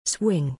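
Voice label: "swing", English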